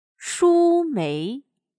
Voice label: "书眉", chino

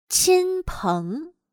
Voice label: "亲朋", chino

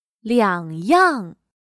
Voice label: "两样", chino

